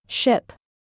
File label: "ship", English; minimal pairs quiz